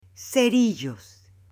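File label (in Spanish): "cerillos", Spanish, female; UTENSILIOS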